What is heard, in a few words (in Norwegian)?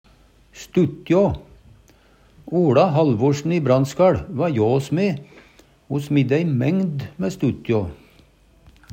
stuttjå, Numedalsmål